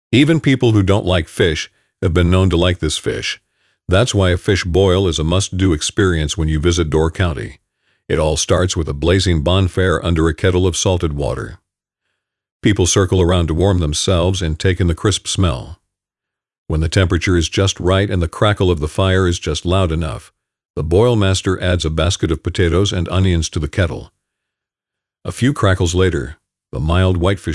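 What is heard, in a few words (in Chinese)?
外籍男英_外语_美式英语_纪录片风格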